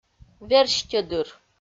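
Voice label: "верстьӧдыр", Komi, female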